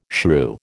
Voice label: "shrew", American